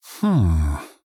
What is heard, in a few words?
Male Human Think; Arthas